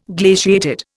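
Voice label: "glacier", Indian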